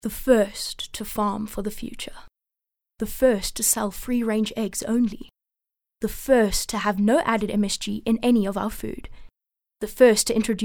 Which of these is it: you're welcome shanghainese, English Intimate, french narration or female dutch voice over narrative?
English Intimate